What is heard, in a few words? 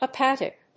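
"hepatic", English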